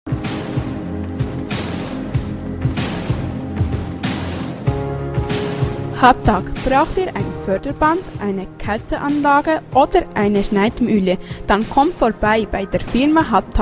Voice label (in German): Werbejingle; Hatag; Bolligen